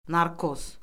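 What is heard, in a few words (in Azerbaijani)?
"narkoz", Azerbaijani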